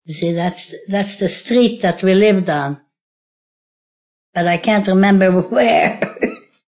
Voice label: Thats The Street, Female; Talking; Voice Box

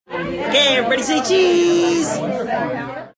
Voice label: "Say Cheese", Male; Talking

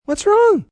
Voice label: Whats Wrong, Questions, male; Talking Sound Effects

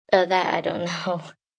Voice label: Response, 1 girl, 11 yrs.; Talking; VoiceBox

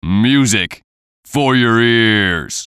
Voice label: VOICE COMICAL; Music For Your Ears; Funny Sound; Radio Voice; Blastwave

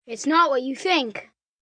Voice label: Not What You Think, 1 boy, 10 yrs; Talking Sound Effects

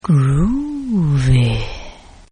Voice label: Lady Sexy Groovy, Exclamations; Voice

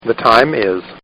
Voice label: Man Says The Time Is; Talking; Voice; Partners In Rhyme

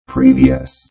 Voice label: Robot Voice "Previous"; Lynne Music

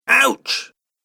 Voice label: "Ouch", Male Voice; Recorded Voice

